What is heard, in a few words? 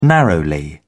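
"narrowly", British English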